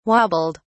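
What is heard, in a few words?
"wobbled", English, British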